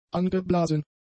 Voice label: "angeblasen", German; adjectives